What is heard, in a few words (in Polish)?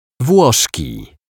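"Wloszki", Polish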